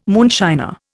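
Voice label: "moonshiner", Indian English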